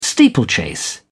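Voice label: "steeplechase", British English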